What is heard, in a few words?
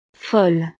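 "folle", French; estados animo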